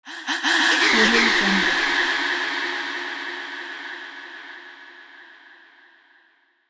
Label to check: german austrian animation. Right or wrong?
Wrong